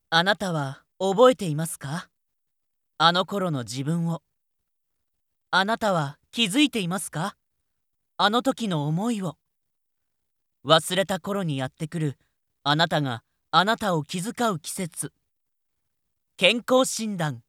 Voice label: male